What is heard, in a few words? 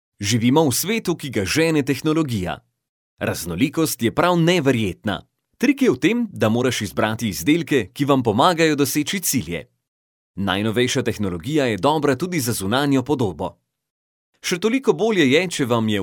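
Slovenian, male, narration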